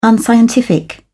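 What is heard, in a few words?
"unscientific", English, British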